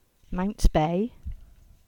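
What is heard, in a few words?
"Mounts Bay" English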